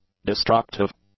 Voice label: "destructive", english; adjectives

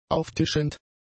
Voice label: "auftischend", German; adjectives

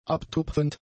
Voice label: "abtupfend", german; adjectives

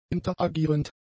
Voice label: "interagierend", German; adjectives

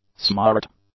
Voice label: "smart", English; adjectives

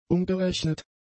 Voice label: "umgerechnet", German; adjectives

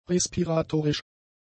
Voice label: "respiratorisch", German; adjectives

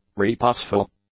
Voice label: "reposeful", English; adjectives

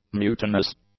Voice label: "mutinous", English; adjectives

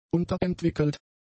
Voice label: "unterentwickelt", German; adjectives

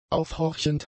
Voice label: "aufhorchend", German; adjectives

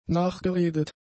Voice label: "nachgeredet", German; adjectives

